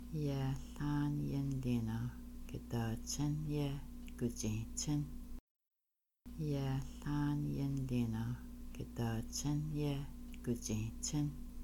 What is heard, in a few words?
Which do you like better moose meat or caribou me, Kaska; People Camping